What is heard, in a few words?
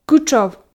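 "кытшов", Komi, female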